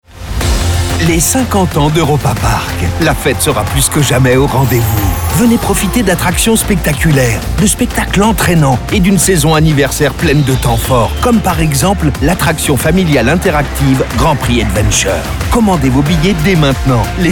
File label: FR; sprecher franzoesisch; french voice-over talent male; Europa-Park 50 Jahre